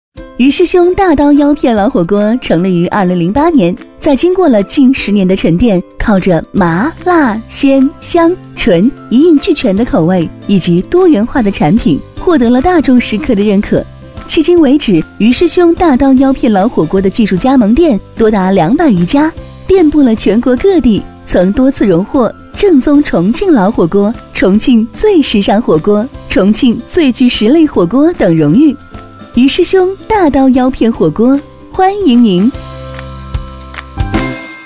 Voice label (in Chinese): 广告